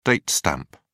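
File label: "date stamp", English, British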